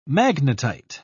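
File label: "magnetite", British English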